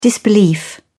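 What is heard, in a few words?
"disbelief", British English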